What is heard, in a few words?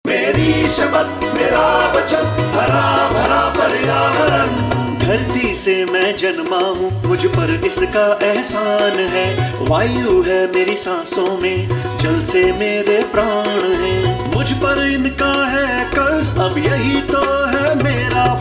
Hindi; Meri shapath